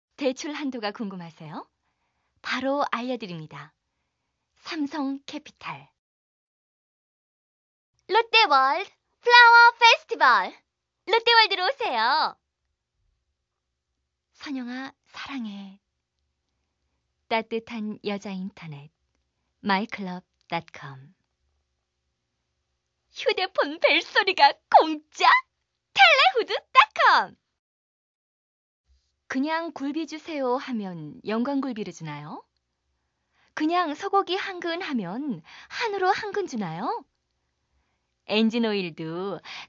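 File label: Korean female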